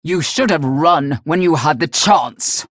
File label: chatter positive; galena; unholy paladin; frag